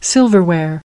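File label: "silverware", English word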